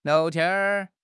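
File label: "liao2 tian1", Chinese; HSK; HSK3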